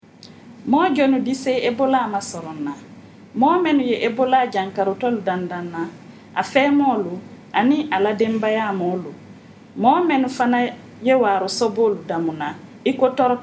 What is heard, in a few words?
malinke; ebola; radio-spots; vhf